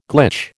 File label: "glitch", American